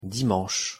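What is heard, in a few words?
"dimanche", French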